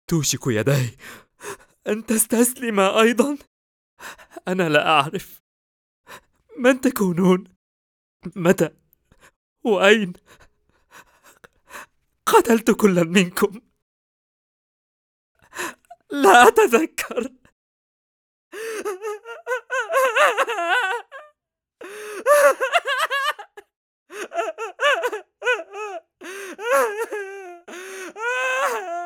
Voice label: Arabic dubbing; Vinland saga Thorfinn

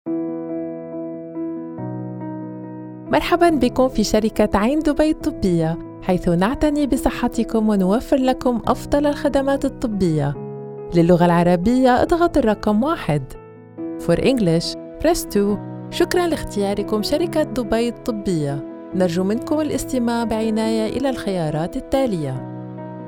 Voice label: IVR, Arabic

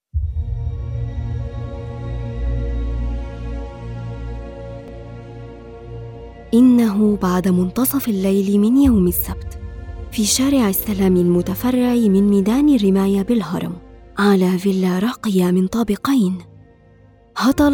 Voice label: Audio books

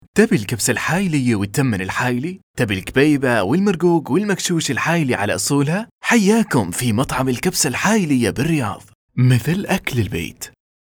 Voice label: Gulf

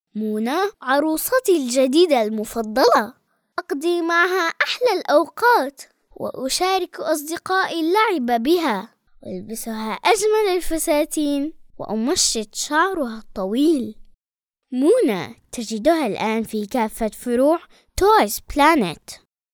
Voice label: Commercial toys planet

